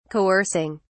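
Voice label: "coercing", English, British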